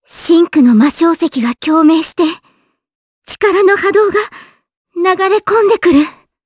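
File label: Vox Japanese; Kirze; kingsraid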